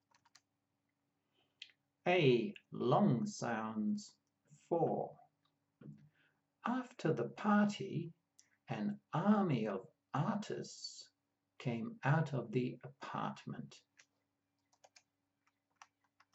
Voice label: A long sounds, pronunciation of australian english